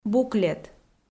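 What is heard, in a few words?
"буклет", Komi, female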